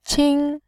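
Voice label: "qin", Mandarin Chinese, first tone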